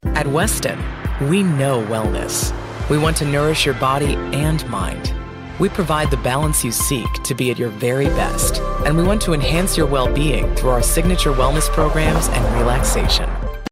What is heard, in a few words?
deep calm confident reassuring relaxing gravitas articulate